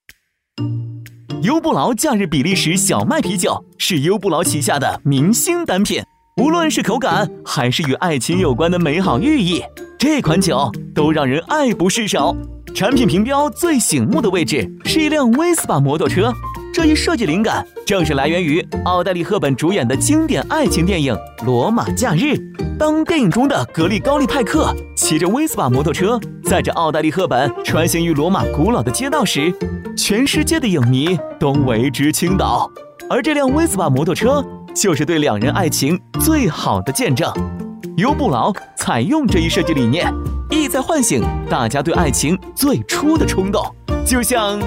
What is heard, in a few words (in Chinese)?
MG愉悦欢快